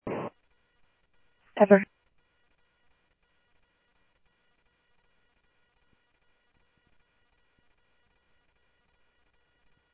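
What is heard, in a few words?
police radio scanner traffic, Mesa Simulcast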